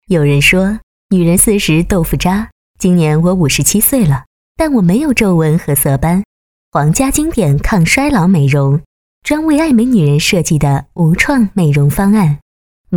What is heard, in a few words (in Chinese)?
女 广告 品质, 国语女声配音; 蔷薇老妈版